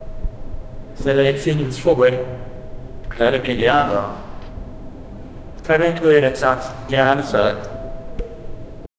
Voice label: scholar teacher slavic; academic